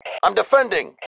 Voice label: i am defending, male

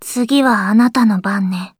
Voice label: with you, voice commands; svencoop; Blanc

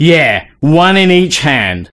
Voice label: Cheer, voice commands male; svencoop

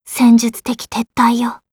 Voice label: run away, voice commands; svencoop; Blanc

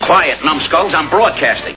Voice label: quiet

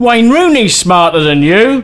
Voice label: Taunt, male; svencoop

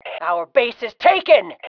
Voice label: our base taken, FEM

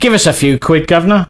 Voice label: Need Money, male; svencoop

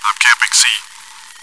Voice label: chitchat, bot, voice commands; svencoop

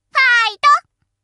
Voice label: taunt, voice commands Anime Loli; svencoop

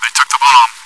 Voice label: chitchat, bot, voice commands; svencoop

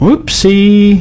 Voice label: Sorry, male; svencoop

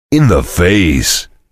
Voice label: cheer; svencoop; voice commands; Duke

